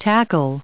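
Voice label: "tackle", English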